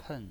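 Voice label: "pen" fourth tone, pinyin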